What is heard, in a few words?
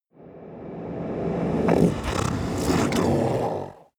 demon dark voice reverse open the door